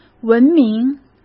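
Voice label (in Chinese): "闻名", Mandarin Chinese; chinese flashcards; hsk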